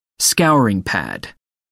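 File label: "scouring pad", British English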